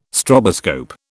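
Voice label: "stroboscope", British English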